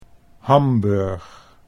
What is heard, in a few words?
"Hamburg", Dutch